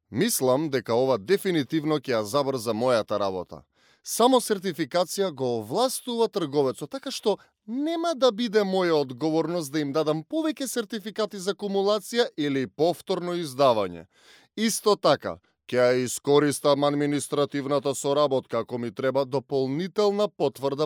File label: Acting Monologue